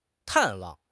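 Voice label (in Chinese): "探望", Chinese; HSK6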